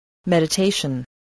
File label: "meditation", voice, spelling